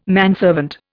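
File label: "manservant", Indian English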